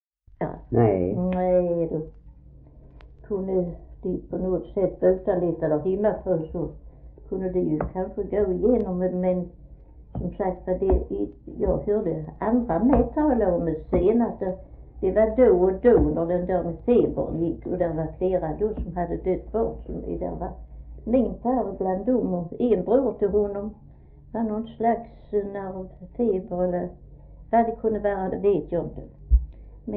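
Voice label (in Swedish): Fleninge Skåne, Svenska sagor dialekter; Folkminnen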